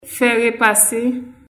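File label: Haitian Creole; fer a repasser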